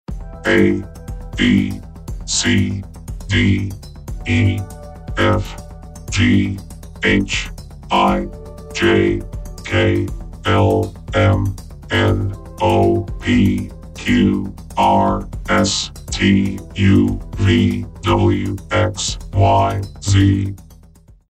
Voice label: Robot Voice Alphabet; Construction Kit Demo